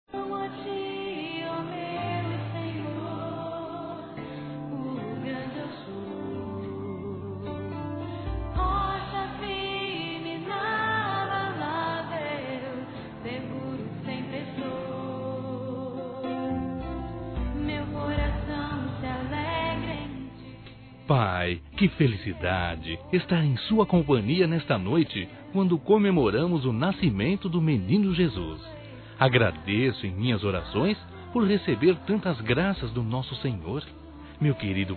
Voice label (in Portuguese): NATAL E ANO NOVO PARA PAI, masc; Telemensagens; Misto